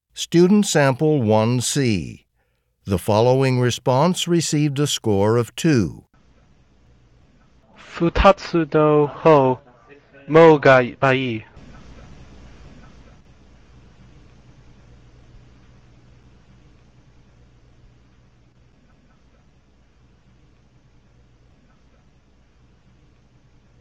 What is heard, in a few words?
japanese conversation